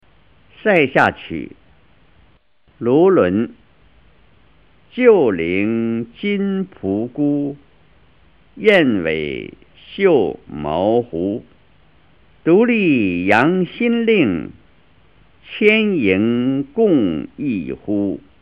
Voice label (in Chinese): 唐诗 朗读; 唐诗三百首; 夏青; 卢纶